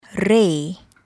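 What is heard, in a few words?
"ray", English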